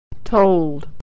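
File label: "told", American English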